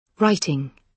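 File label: "writing", British English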